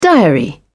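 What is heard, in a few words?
"diary", British English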